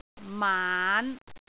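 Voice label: "maan5", Cantonese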